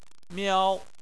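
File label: "miao", Chinese, first tone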